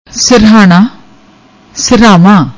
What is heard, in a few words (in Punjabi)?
"sirhaana", Punjabi; ਸਿਰਹਾਣਾ